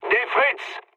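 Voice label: French enemy detected mood low; War Thunder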